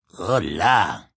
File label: Hello Spanish, Male; VoiceBox